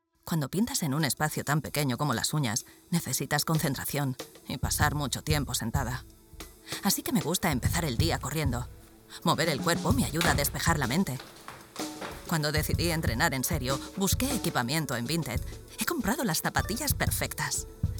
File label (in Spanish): ES; Vinted